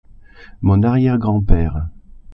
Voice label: "arrière-grand-père", French

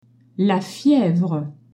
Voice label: "fièvre", French; la fièvre (the fever); accents

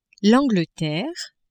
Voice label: "Angleterre", French

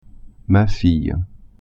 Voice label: "fille", French

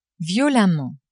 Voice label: "violemment", French; adverbs

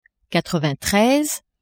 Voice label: "quatre vingt treize", French; nombres

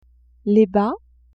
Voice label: "bas", French; vetements

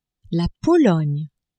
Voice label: "Pologne", French

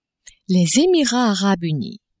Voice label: "Emirats arabes unis", French

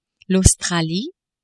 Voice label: "Australie", French

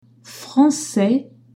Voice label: "francais", French

